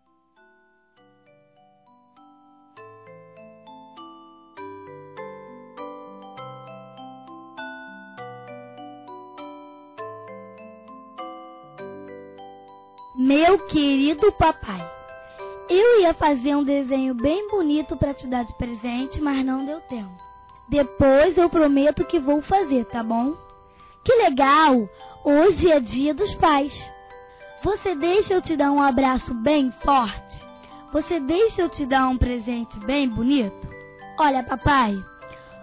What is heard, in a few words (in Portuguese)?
FILHA P PAI INFANTIL; Telemensagens 2017